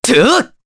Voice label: Attack, jp; Pavel; kings raid